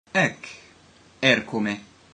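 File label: I'm from, ancient Greek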